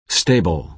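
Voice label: "stable", American English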